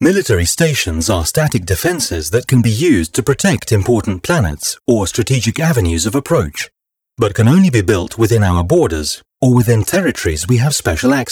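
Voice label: tutorial message military station; Stellaris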